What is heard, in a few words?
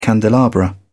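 "candelabra", British English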